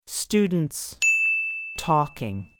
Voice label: Students are talking; IELTS exam